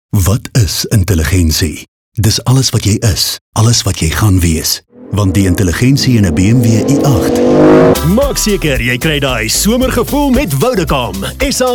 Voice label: Afrikaans Showreel